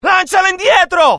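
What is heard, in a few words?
fear grenade, IT; mohaa; dialogue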